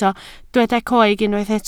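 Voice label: "coeg" Welsh, spoken word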